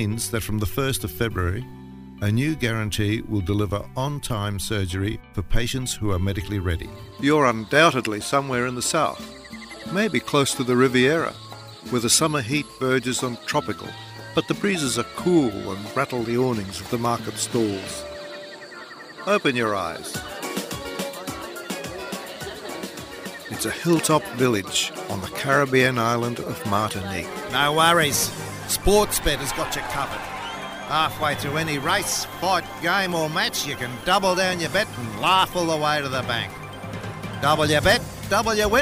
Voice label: Voice Over